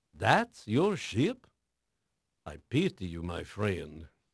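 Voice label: Portuguese male, voice; Pirates Of The Caribbean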